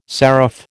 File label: "seraph", American